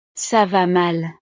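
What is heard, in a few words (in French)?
"ca va mal", French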